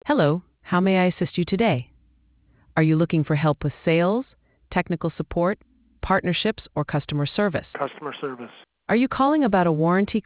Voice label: conversation